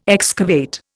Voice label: "excavate", Indian

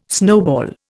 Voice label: "snowball", Indian

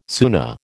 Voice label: "Sunna", American

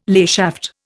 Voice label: "layshaft", Indian

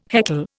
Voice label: "heckle", Indian

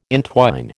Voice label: "intwine", American

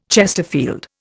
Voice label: "chesterfield", Indian

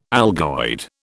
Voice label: "algoid", British

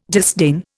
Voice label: "disdain", Indian

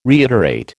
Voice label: "reiterate", American

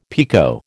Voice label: "picot", American

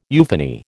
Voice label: "euphony", American

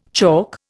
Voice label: "chalk", Indian

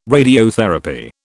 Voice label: "radiotherapy", British

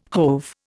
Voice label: "hove", Indian

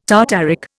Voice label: "tartaric", Indian

